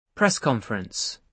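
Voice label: "press conference", British English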